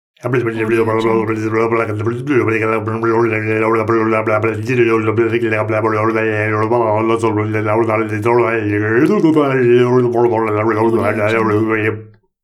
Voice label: man mumbling